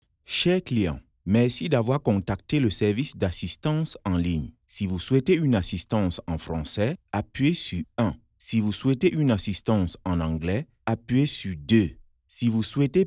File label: IVR French